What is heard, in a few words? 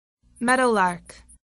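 "meadowlark", English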